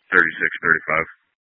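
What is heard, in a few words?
Police Dispatch, Scanner audio; Police 1 Dispatch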